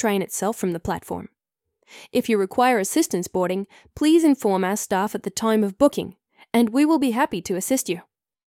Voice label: Station map audio description